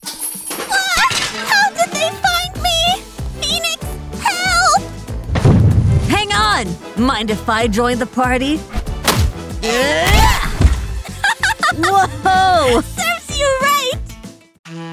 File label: Drama, English; WOMAN